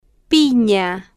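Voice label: "pina", spanish, slow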